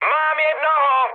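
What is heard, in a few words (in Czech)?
Czech player killed enemy, mood high; War Thunder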